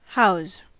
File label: "house", us